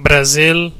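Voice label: brazil, Spanish; gcompris-data; geography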